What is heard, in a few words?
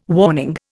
"warning", Indian